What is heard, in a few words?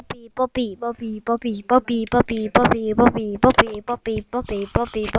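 "puppy", spoken word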